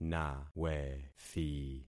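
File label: "nawethi", concatenated syllables; Affixing experiment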